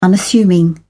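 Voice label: "unassuming", British English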